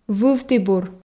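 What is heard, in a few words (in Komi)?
"вывті бур", Komi, female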